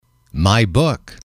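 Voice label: "book", male, English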